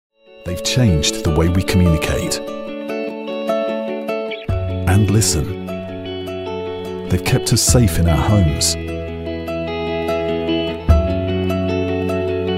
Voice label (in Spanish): comercial inglés UK